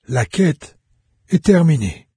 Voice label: quest complete, fr, NARRATOR; Fate

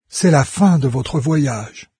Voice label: journey complete, fr, NARRATOR; Fate

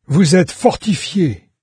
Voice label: fortified, fr narrator; Fate Undiscovered Realms

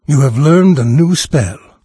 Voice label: new spell, NARRATOR, en-US; Fate The Traitor Soul

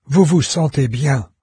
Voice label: healthy, fr, NARRATOR; Fate

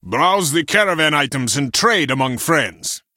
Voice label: trader, en-US; merch; Fate The Cursed King; calel

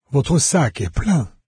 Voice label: pack full, fr, NARRATOR; Fate

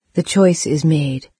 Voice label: choice, en-UK, NARRATOR; Fate

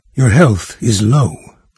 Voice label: health, NARRATOR, en-US; Fate The Traitor Soul

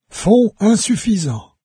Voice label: low gold, fr, NARRATOR; Fate

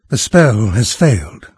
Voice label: spellfail, narrator; Fate The Cursed King; en-US

